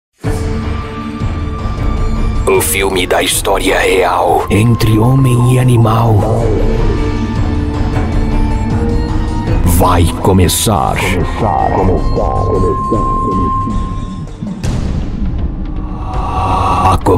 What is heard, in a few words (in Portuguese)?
locucao brasil; banco de vozes